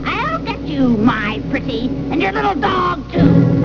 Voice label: WITCH